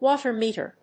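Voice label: "water meter", English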